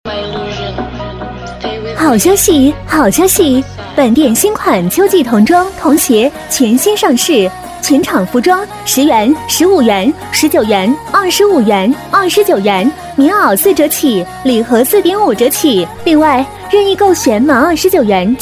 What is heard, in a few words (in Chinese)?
童装童鞋好消息-促销广告